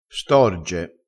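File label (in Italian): "storge", dialettale, crespinese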